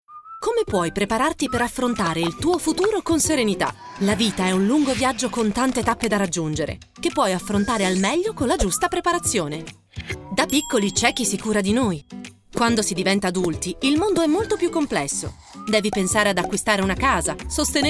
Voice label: female italian comercial; ideasonora